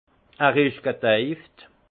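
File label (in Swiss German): "gedeift", alsace Haut-Rhin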